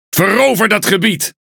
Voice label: NL Speech Quick Commands Enemy Point; World Of Warships

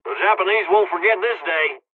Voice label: dlg, hungarian; dialogs

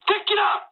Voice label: enemy detected mood high, speech jp; War Thunder

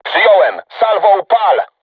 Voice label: voice message art shoot, crew tanks polish

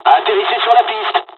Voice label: hydroplane land, dialogs french; War Thunder

